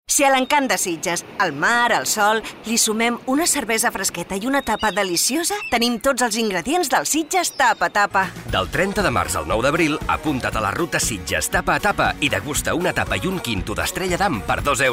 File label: female catalan beer comercial; ideasonora